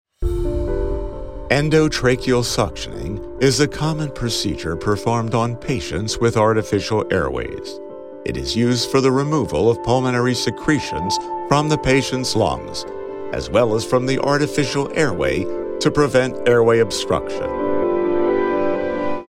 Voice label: Explainer Medical

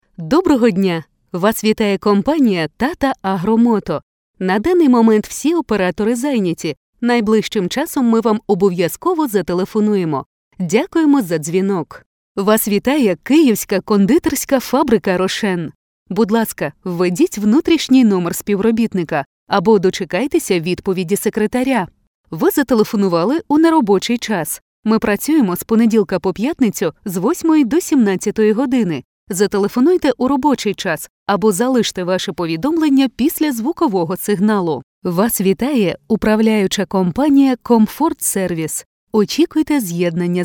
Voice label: Ukrainian IVR

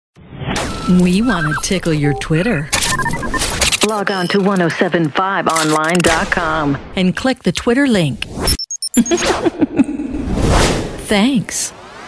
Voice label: Station Imaging

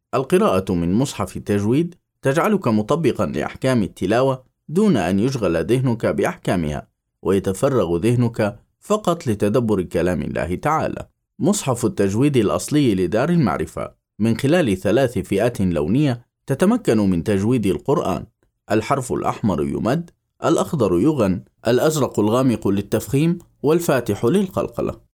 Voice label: Classic Arabic

